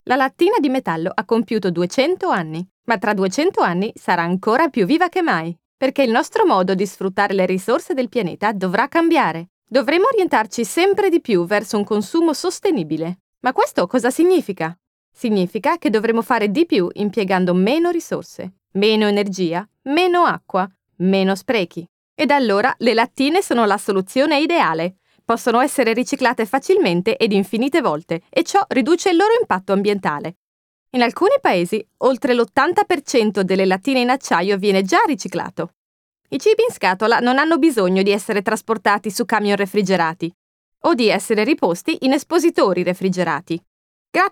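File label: Italian, Corporate; GoLocalise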